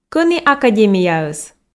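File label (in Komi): кӧні академияыс, Komi, female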